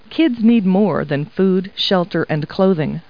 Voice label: people, kid